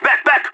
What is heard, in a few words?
radio bot fall back; player vo leet